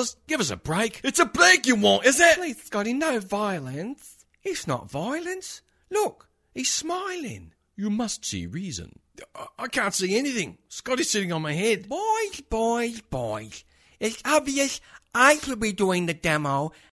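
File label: English Australian, male, voice over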